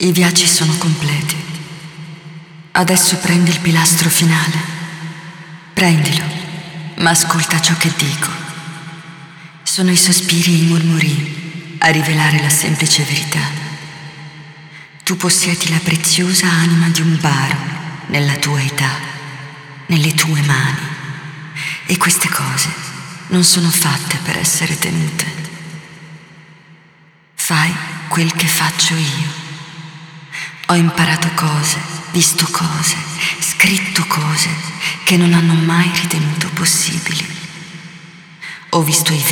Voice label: Speech, ita; Yeesha; moul